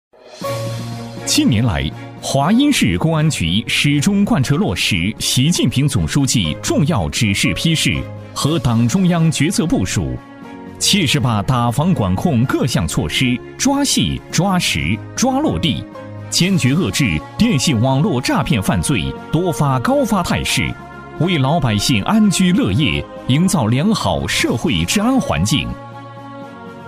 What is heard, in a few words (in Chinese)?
男 政府工作汇报 打击电信诈骗 正气有力; 正气明亮 沉稳大气